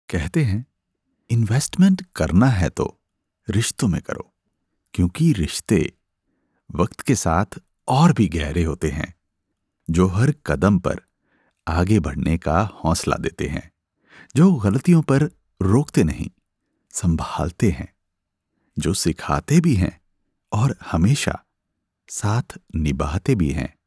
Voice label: Narration Hindi